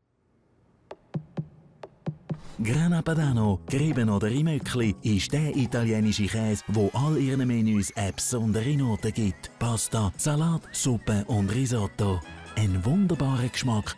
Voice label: tvc; Grana Padano – TV-Spot